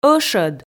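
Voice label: "ӧшӧд", Komi, female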